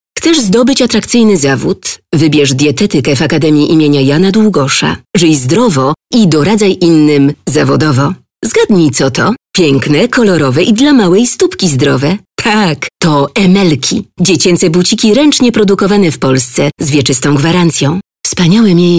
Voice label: polish female commercials

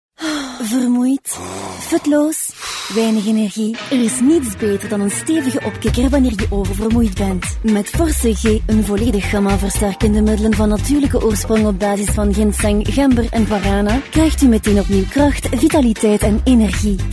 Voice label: COMMERCIALS FLEMISH

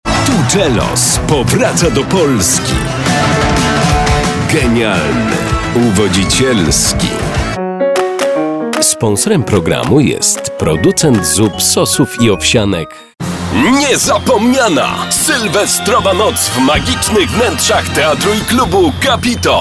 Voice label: polish male commercials

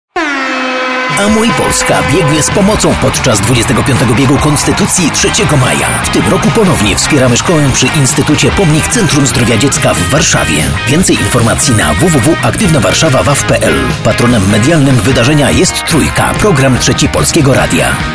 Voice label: spot

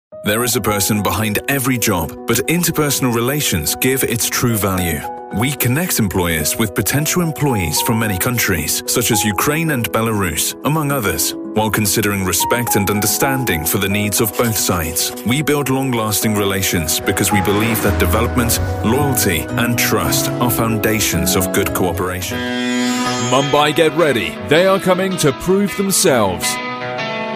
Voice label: british male

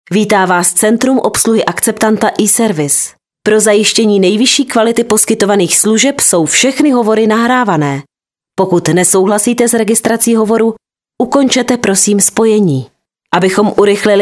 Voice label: Czech; eService

